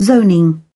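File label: "zit", British English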